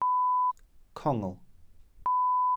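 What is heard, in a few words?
"congl", Welsh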